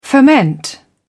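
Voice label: "foment", British English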